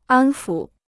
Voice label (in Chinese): "安抚", Chinese